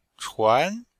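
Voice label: "chuan2", chinese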